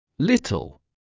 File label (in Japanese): イギリス英語 little